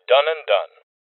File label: Confirmation Male; rebel cops 2019 gamerip; Voices